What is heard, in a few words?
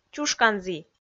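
"чушканзі", Komi, female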